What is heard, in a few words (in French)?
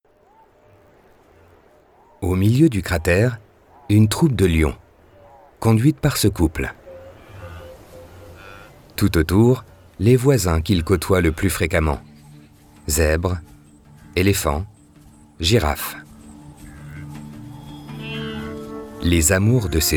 Docu Animalier